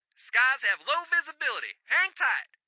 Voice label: Radio Chatter

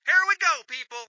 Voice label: Radio Chatter "Here we go people!"

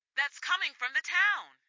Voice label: Radio Chatter "That's coming from the town!"

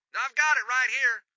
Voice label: Radio Chatter "I've got it right here!"

